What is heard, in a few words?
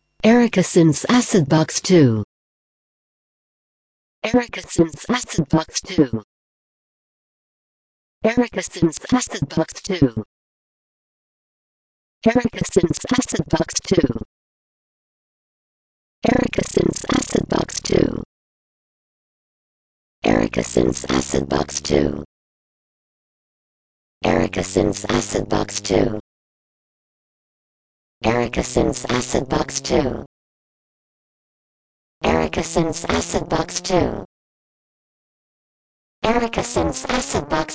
Acidbox II Speech BPF; Erica Synths